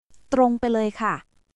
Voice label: Thai, basic thai phrases

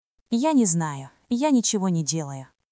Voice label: Russian, russian phrases insults; ロシア語のネガティブなフレーズ

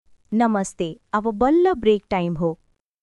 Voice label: Nepali, nepali greeting phrases; 日本の「お疲れ様です！」はネパール語でどう言う？職場で使える挨拶フレーズ集

